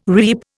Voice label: "reap", Indian